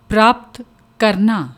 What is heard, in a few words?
"praapat karna", Punjabi